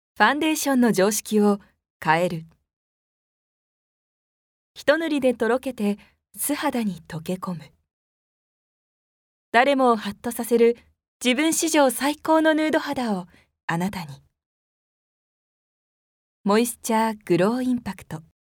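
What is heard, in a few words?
narration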